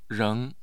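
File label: "reng2", Mandarin Chinese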